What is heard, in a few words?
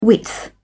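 "width", British English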